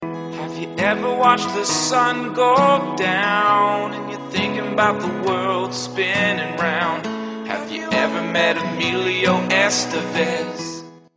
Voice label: Is Calling RNB

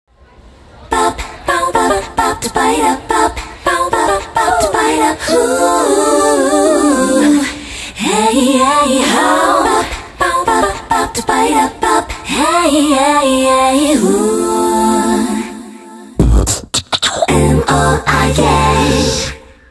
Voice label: um oh ah yes

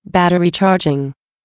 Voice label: FEM Bat Charging

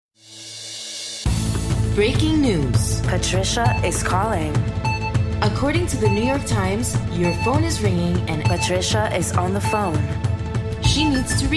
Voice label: Is Calling Pop, ringtones

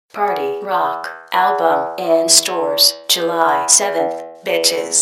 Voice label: Female Robot in Heat

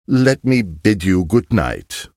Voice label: Good Night Male

